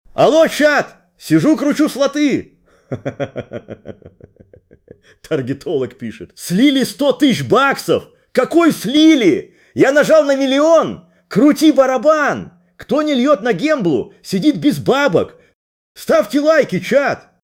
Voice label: excited allo chat sizhu kruchu sloty. laughin; mellstroj; fish-audio